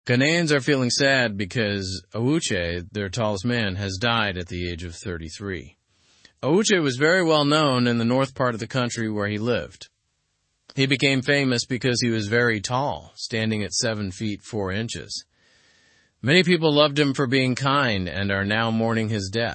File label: english through news lower intermediate normal; ghana mourns the passing of awuche